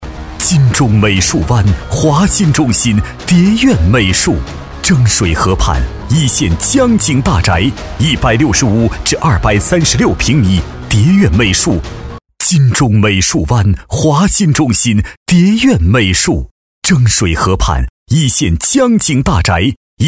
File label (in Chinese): 男 广告 地产磁性激情